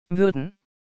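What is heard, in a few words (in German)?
"wuerden", German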